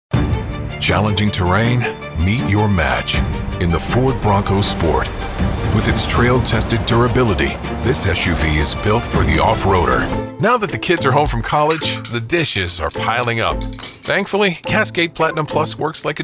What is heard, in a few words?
Commercial; Washington, D.C. area